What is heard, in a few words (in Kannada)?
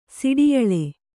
"ಸಿಡಿಯಳೆ", Kannada